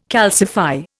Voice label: "calcify", Indian